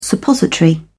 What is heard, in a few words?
"suppository", en uk